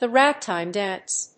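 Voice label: "The Ragtime Dance", English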